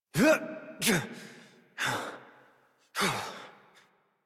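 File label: horse dismount adult, English; Warframe; Duviri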